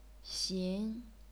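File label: "xin", chinese pinyin, second tone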